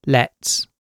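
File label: "lets", British English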